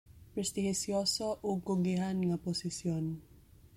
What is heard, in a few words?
Cebuano; prestihiyoso ug kugihan nga posisyon; prestigious and hard-earned position